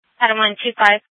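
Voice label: Sheriff, Parks, Sheriff radio scanner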